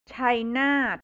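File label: "Chainat", Thai, central; ชัยนาท; แผนที่ประเทศไทย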